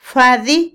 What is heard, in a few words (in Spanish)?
cárcel, Otomí, mujer; INALI